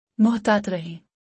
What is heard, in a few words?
Be careful - Urdu; Basic Greetings and Courtesy